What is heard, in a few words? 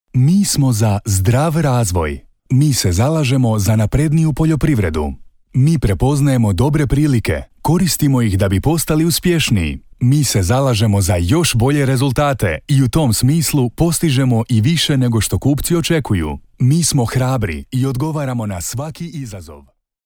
male Croatian smooth, commercial, institutional; 35-60